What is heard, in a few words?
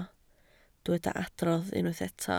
"adrodd", Welsh